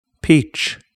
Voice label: "peach", English